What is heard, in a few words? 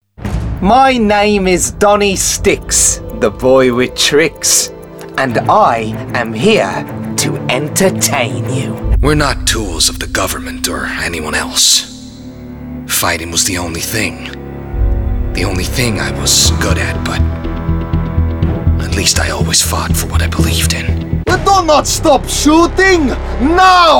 animation